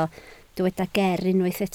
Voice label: "ger", Welsh

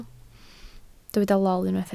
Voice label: "lol", Welsh

